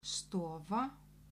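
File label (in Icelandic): "stofa", Icelandic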